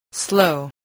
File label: "slow", voice, spelling